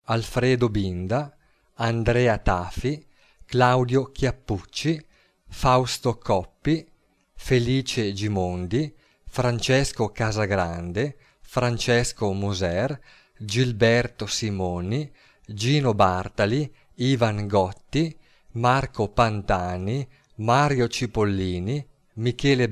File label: Italian cyclists names pronunciation